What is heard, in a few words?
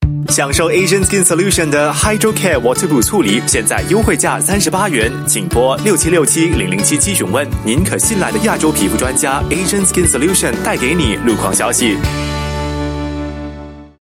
Mandarin Traffic Update; Asian Skin Solutions